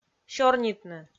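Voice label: "сёрнитны", Komi, female; kpv audio dict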